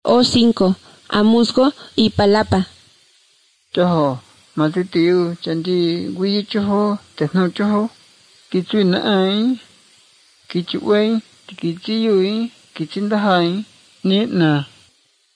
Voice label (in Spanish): spanish mexico